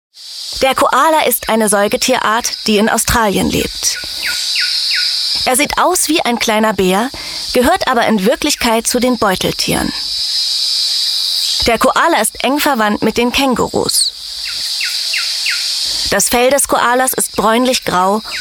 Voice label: german voice over female documentary